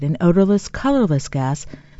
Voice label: "odorless colorless gas", female, Voice